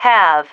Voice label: "have", female